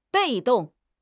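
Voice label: "被动", Chinese; HSK6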